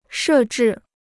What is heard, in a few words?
"设置", Chinese